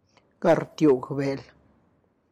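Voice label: Tlacochahuaya Zapotec; cutting meat to serve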